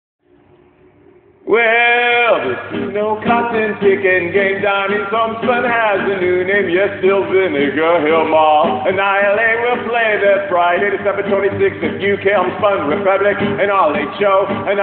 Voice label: radio ad